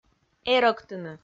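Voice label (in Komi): "эрӧктыны", Komi, female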